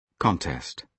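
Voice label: "contest", British English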